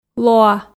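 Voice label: "лоа", Komi, female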